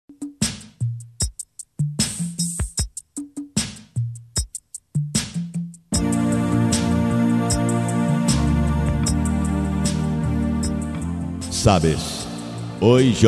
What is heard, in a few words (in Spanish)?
Yo te Quero Mucho Masculino; Variadas em Espanhol